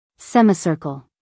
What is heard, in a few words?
"semicircle", US female